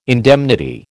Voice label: "indemnity", American